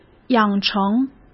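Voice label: "养成", Mandarin Chinese; chinese flashcards; hsk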